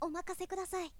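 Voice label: "omakasekudasai", boy; shonen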